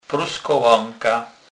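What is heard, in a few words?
"pruskoleka", Polish, Kurpie dialect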